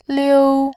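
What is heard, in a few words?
"liu", Mandarin, tone 1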